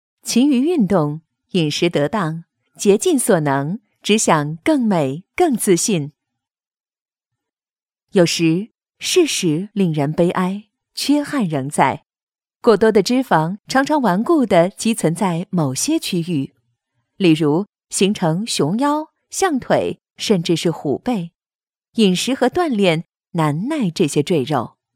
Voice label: locutora china chinese voiceover multimedia aplicacion cool; locutora china. Aplicación multimedia para "Cool..."